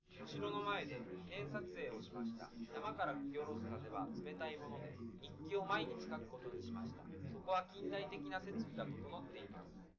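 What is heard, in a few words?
male4 live recording 250ms 5cm, underdetermined; SiSEC11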